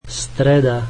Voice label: slovak Wednesday